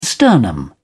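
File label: "sternum", British English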